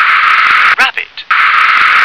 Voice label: "rabbit", English

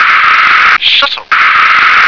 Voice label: "shuttle", English

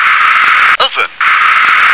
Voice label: "oven", English

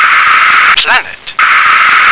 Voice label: "planet", English

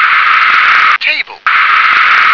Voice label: "table", English

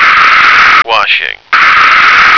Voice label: "washing", American

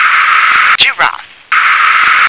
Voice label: "giraffe", English

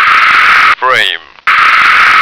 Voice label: "frame", American

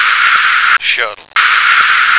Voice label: "shuttle", American

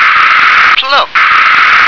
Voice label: "plum", English

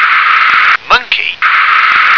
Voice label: "monkey", English

